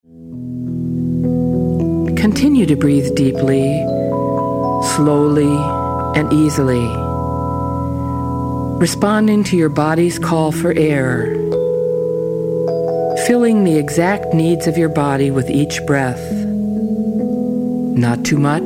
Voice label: Mealtime Meditation